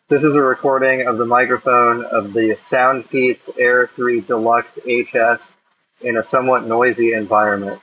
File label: noisy